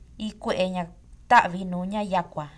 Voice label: Bacteria, San Juan Mixtepec, Santa Cruz